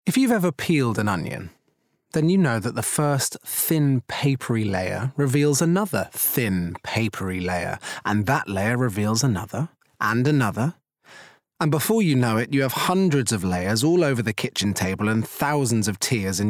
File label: Bookread; Lemony Snicket